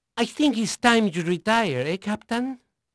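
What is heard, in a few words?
Spanish male, voice; Pirates Of The Caribbean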